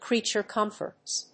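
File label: "creature comforts", English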